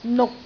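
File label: "nuk6", Cantonese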